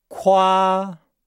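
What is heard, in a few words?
"kua", Chinese; Nihao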